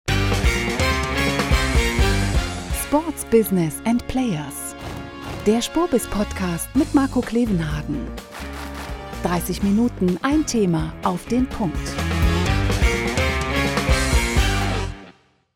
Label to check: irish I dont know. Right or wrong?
Wrong